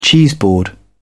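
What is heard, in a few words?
"cheeseboard", British English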